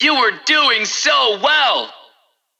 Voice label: Extreme Positive

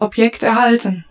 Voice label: German - Acquired

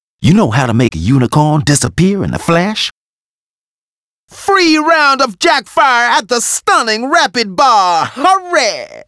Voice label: Bonus uk; arthur and the revenge of maltazard; Wii

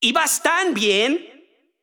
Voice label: Extreme Positive

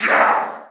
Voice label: Final boss Vox Attack

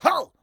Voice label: Drunken Friar

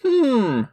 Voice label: dream reader voice

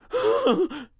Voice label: Enemy Generic Human Spawn Disbelief

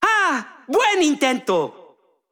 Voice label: Extreme Positive

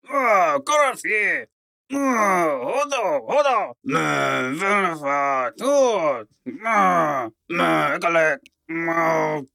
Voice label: Complain Combined; Zote

